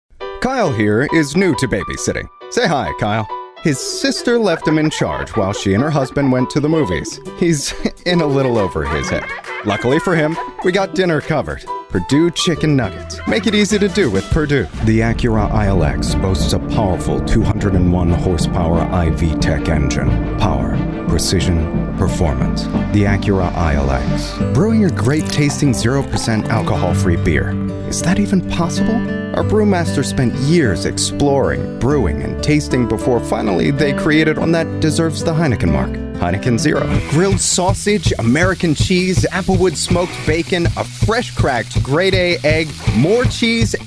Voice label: 30s Male Commercial

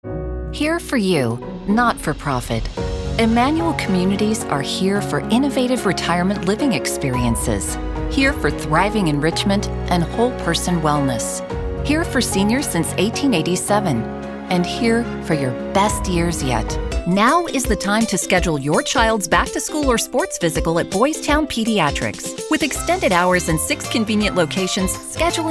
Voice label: Female 40s 50s Commercial

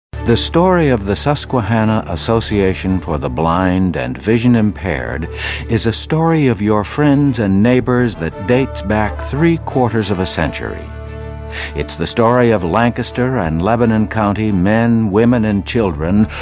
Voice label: 50s Male, voiceover

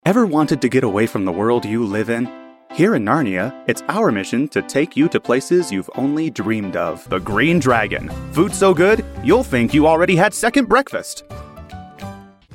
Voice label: 30s40s Male Characters Commercials

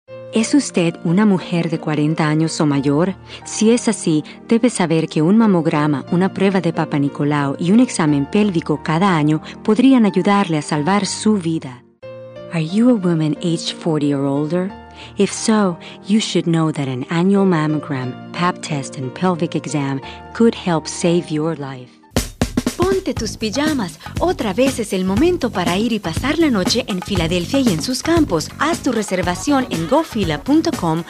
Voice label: 30s 40s Female Spanish English

